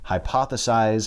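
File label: "hypothesize", English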